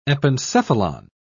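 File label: "epencephalon", English, British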